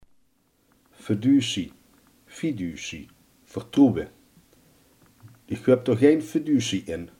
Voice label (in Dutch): "vertrouwen", limburgse